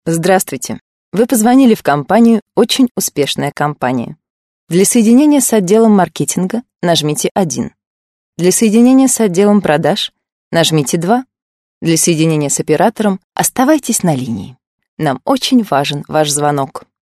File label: russian female